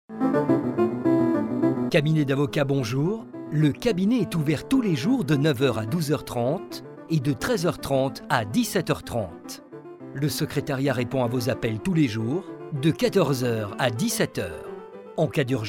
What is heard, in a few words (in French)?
Repondeur; exemples de messages vocaux